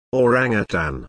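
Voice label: "orangutan" British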